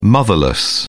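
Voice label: "motherless", British English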